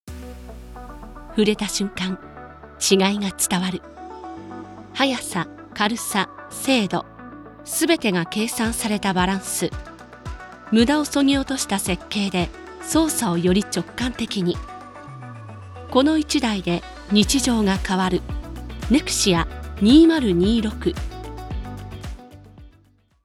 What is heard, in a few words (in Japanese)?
モバイル機器紹介 クール; VOPlanet